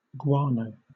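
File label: "guano" British English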